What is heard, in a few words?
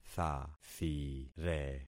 "thathire", concat syllables; Affixing experiment